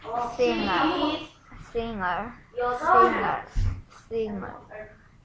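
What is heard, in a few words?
"singer", spoken word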